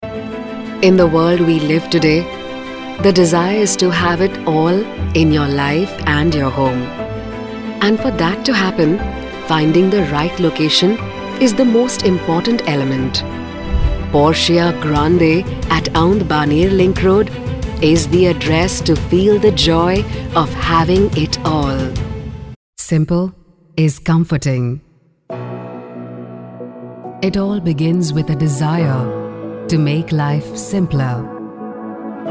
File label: Indian English Corporate